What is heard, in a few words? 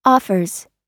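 "offers", US English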